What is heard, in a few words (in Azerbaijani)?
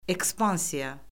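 "ekspansiya", Azerbaijani; Orfoqrafiya Lüğəti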